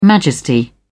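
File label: "majesty", British English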